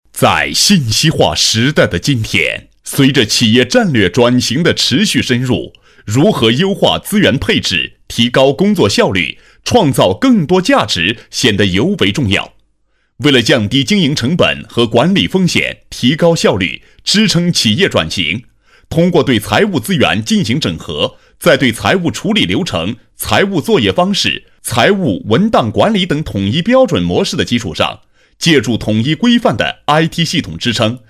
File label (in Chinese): 专题 激情,男; 电信; 干音; 新闻广告专题颁奖